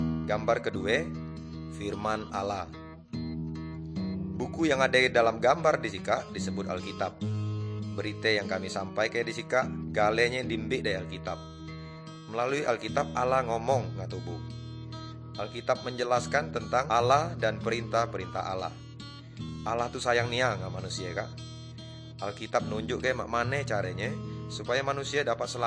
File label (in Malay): good news melayu tanjung raja